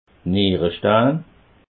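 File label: "nierestein", Bas Rhin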